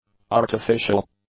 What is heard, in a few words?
"artificial", English; adjectives